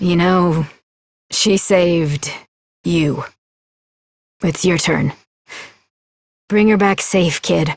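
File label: Adult, English; New War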